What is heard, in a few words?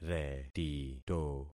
"retito", concat syllables; Affixing experiment